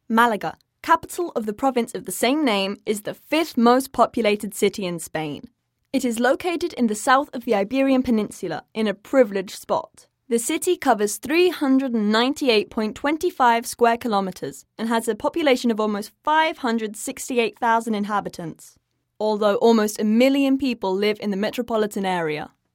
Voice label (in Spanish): publicidad ENG, Voices